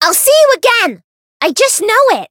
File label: chant